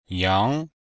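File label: "yang2", Mandarin Chinese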